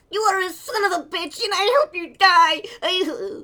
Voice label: Male Kid Beggings